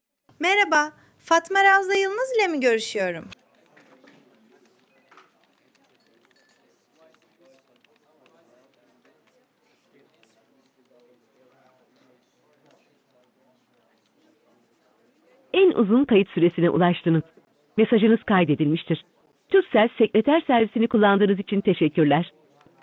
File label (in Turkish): Yapay Zeka Arama, Görüşme Kaydı; En uzun kayıt süresine ulaştınız. Mesajınız kaydedilmiştir.